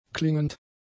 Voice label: "klingend", German; adjectives